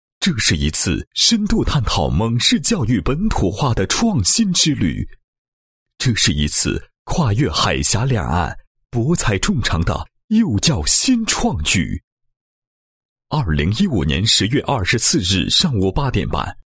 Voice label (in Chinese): 男 纪实; 蒙特梭利教育高峰论坛精彩纪实